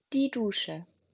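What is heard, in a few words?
"Dusche", German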